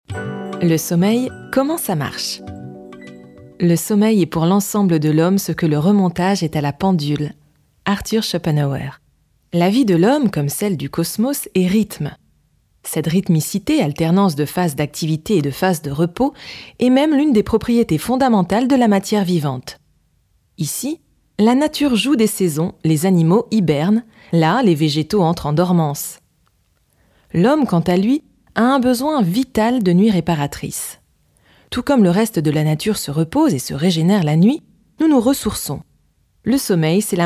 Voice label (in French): narration livre bien-être, voix; Flammarion